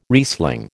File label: "Riesling", American